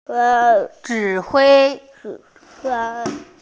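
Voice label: "zhi3hui1" Mandarin Chinese, female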